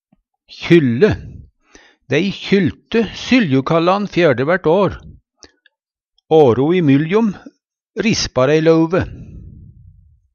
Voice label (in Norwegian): kjylle, Numedalsmål; Eit tre kunne kyllast kvart fjerde år og rispast der i mellom